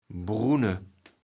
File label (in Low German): "Brunne", kaevels platt